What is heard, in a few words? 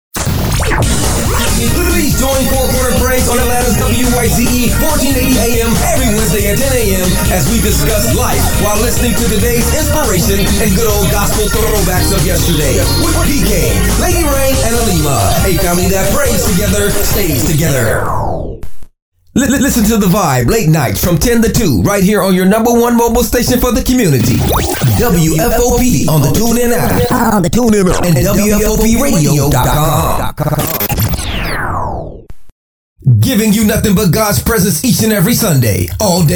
radio sweepers low